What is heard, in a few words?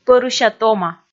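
"пӧрыся-тома", Komi, female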